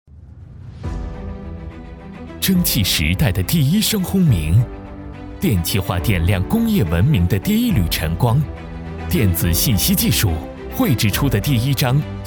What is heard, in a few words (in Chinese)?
样音大气; 时代进步